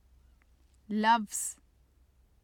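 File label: "lafz", Urdu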